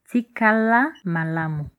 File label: goodbye, Lingala